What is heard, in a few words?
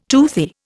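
"tootle", Indian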